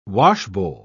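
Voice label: "washbowl", English word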